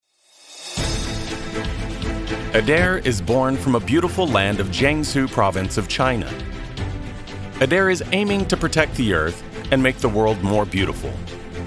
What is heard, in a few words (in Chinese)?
专题浑厚